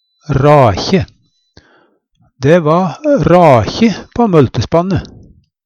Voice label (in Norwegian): rakje, Numedalsmål; Dæ va rakje på møltespanne.; Substantiv hankjønn